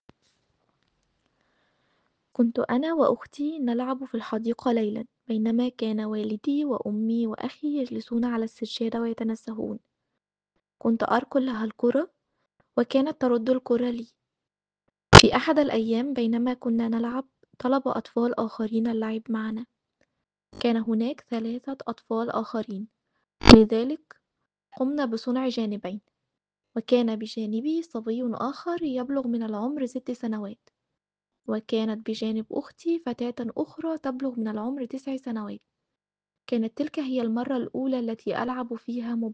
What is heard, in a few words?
Arabic